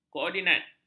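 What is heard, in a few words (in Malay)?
"koordinat", Malay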